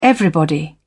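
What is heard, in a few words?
"everybody", British English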